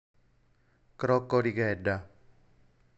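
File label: "Crocorighedda", Sardinian word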